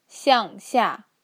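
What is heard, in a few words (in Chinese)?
"xiang xia", Mandarin Chinese; 向下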